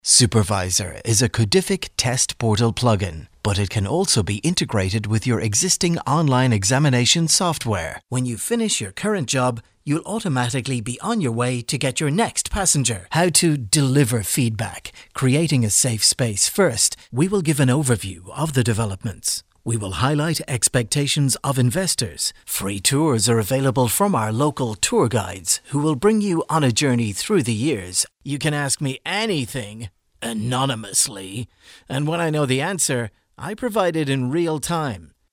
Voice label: english irish medical narration, irish voice actors